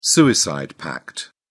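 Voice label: "suicide pact", British English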